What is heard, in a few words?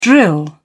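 "drill", British English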